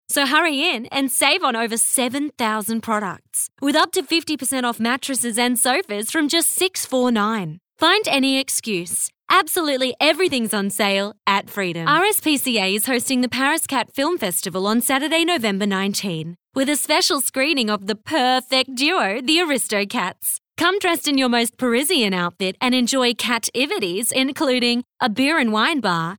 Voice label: Soft Sell; Voice Overs